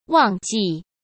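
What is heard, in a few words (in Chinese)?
"旺季", Mandarin Chinese; chinese-flashcards; hsk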